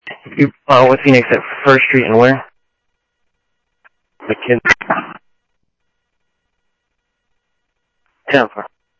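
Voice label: Sheriff Lake Patrol, scanner audio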